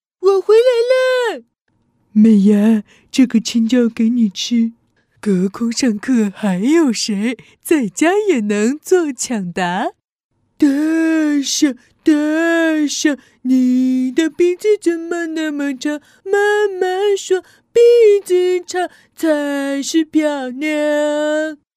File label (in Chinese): 女 角色模仿; 《蜡笔小新》